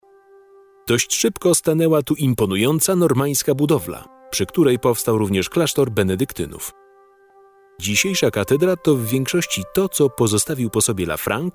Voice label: polish educational

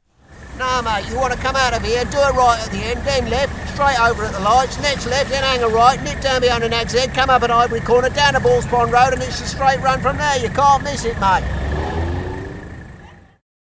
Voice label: cockney cabbie

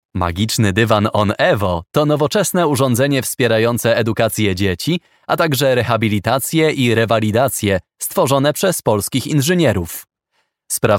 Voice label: polish voiceover narration

